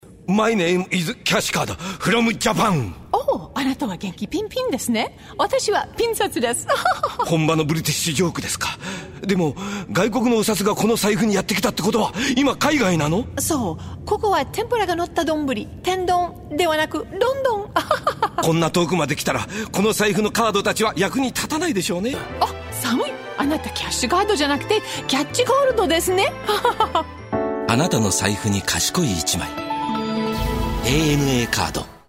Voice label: Japanese; USA